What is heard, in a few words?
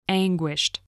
"anguished", spelling